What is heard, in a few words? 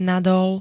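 "nadol", Slovak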